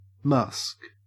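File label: "musk", Australian English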